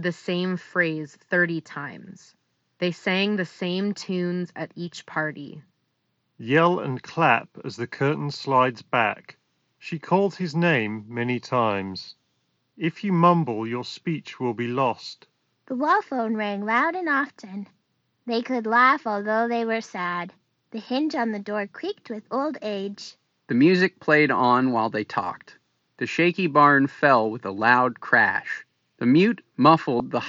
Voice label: Ideal microphone sample; Beyerdynamic Verio 200